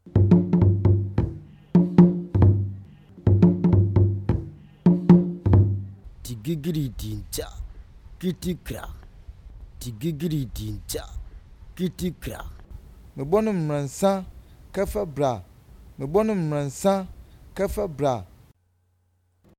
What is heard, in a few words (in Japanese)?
太鼓ことばの口唱歌; africa